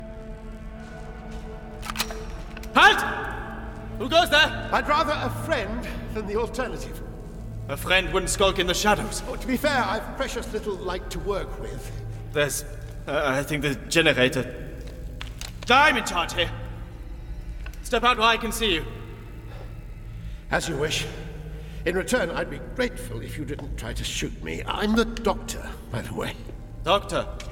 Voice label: German Accent; Doctor Who; Ghost Station